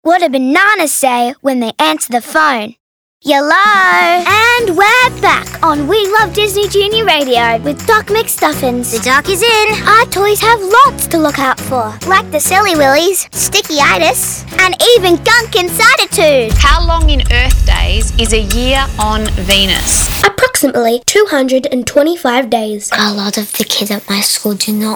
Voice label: Compilation VO